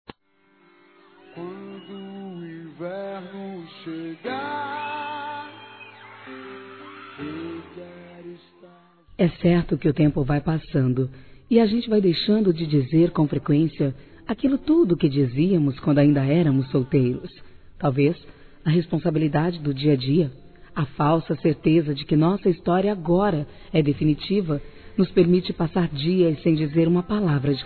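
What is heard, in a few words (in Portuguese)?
ROMANTICO P CASAIS, Feminino; Telemensagens; Românticas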